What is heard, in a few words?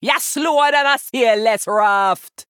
Female Troll Idle; Hooktusk